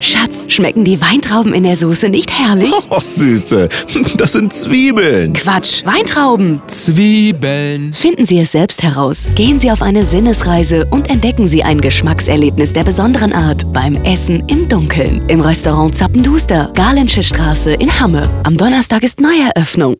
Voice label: radio spot